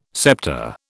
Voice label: "sceptre", British